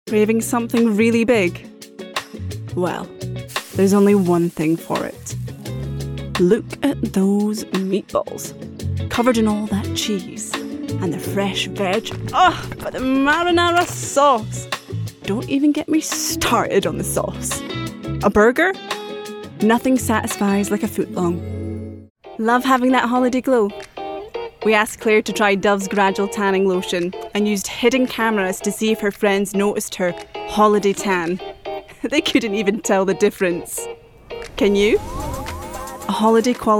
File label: Commercial